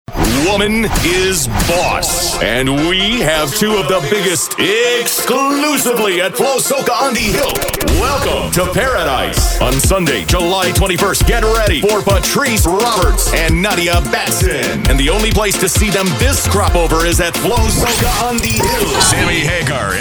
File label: Concert Spots